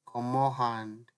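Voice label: "comot hand", Nigerian Pidgin, male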